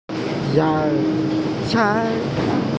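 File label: "zao can", Mandarin Chinese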